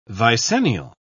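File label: "vicennial", British English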